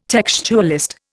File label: "textualist", Indian